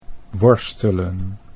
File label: "worstelen", Dutch